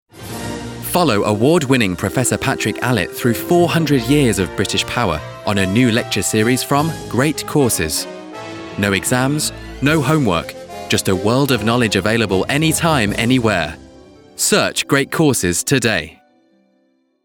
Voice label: ad

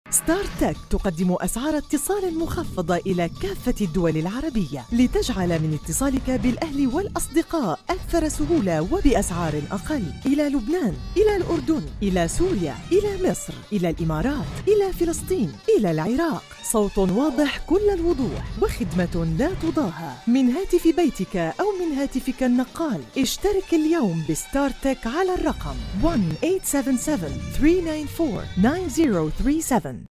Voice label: Arab; Startec

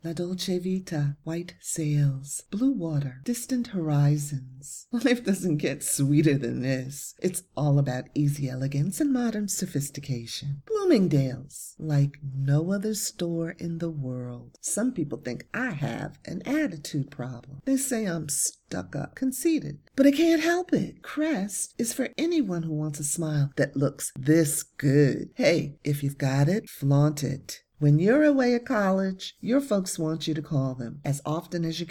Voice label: Dry Studio

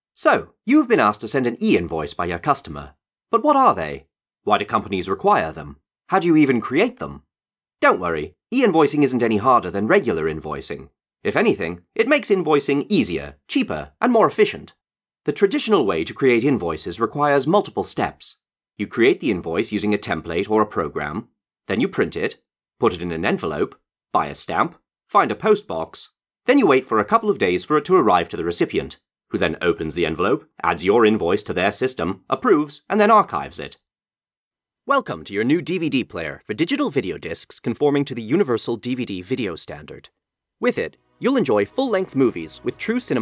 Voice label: Explainer and Technical

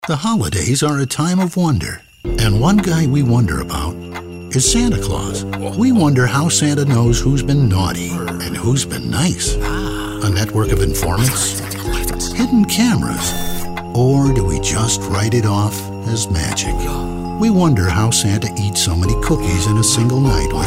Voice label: Storyteller

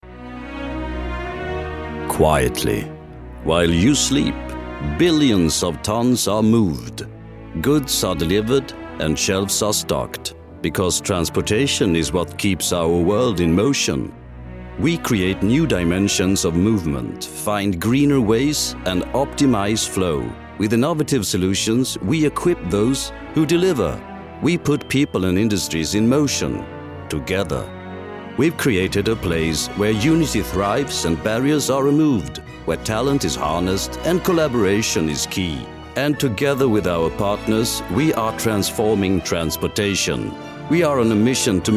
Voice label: English Corporate movie

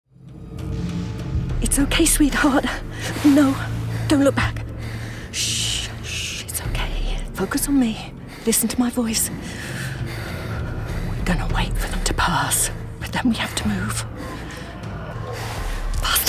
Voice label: game, Female British Voiceover; Character / Cartoon; Animation Reel; Video Games; Gaming Reel

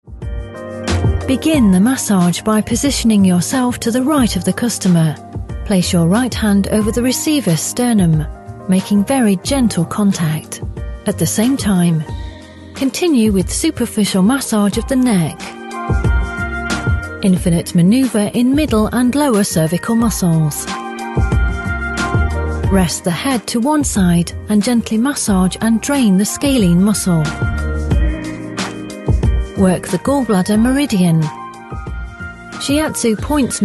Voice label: eLearning

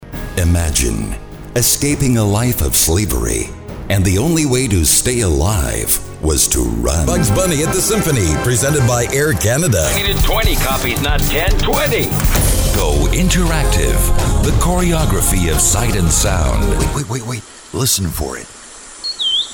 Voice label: Commercials

